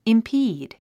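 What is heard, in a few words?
"impede", English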